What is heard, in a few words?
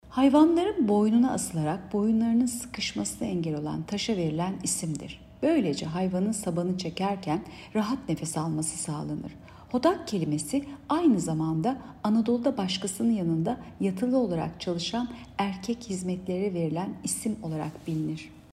turkce